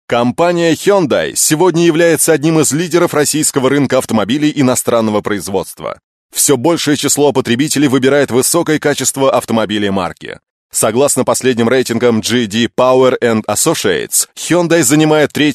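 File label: Russian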